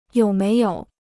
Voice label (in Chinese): "有没有", Chinese